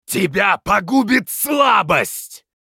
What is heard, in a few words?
Critical Hit, ru RU